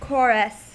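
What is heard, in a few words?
"chorus", English, US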